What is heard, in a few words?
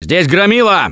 Warn Charger, russian; Gambler